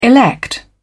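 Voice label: "elect", British English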